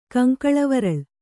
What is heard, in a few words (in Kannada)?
"ಕಂಕಳವರಳ್", kannada